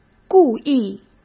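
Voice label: "故意", Mandarin Chinese; chinese-flashcards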